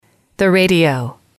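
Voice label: "radio", English, female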